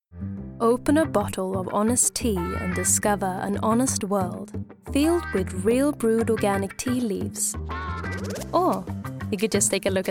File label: English Commercial